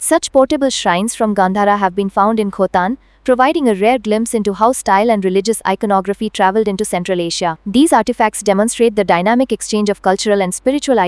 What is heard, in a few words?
English Version